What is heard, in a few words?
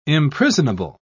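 "imprisonable", British English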